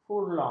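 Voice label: "furlong", Malay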